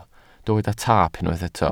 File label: "taap", Welsh